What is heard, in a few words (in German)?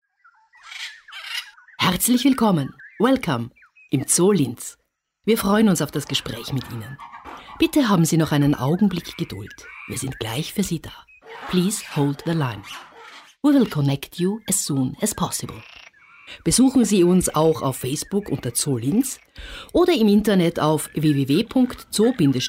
Bsp Telefon deutsch englisch; Hörbeispiel Telefonschleife deutsch-englisch; Voice Design